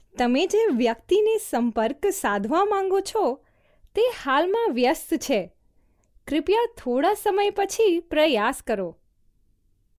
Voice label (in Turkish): Hintce Kadin Gujarati; seslendirme